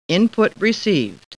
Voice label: Computer Voice; Star Trek